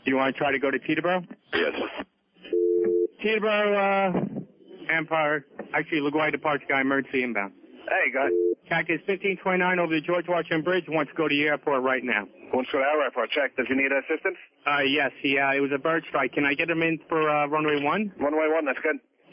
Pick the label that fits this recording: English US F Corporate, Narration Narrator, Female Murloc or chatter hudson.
chatter hudson